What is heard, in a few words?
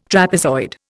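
"trapezoid", Indian English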